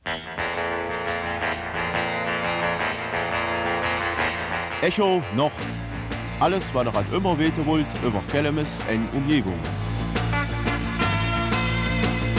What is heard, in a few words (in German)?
Kelmiser Mundart; Télévie-Gruppe Kelmis